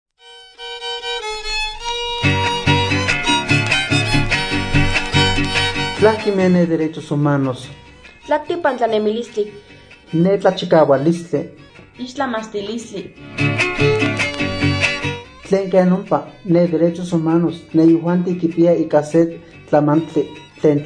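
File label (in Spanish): Lengua Náhuatl de la Huasteca Veracruzana; FI Huayacocotla; Derechos Humanos y Defensores de DH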